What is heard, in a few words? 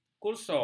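"kursor", Malay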